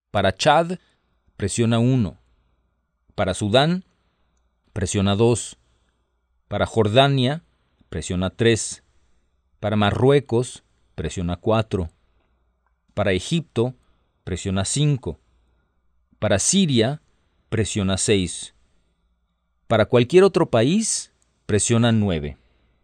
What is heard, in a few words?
Spanish, hotline